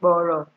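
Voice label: "gboro", Nigerian Pidgin, female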